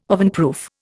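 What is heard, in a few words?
"ovenproof", Indian English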